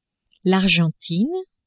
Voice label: "Argentine", French